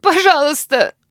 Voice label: help, human woman wounded heavy; Stalker Anomaly